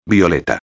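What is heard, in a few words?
"violeta", Spanish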